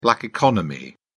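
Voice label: "black economy", British English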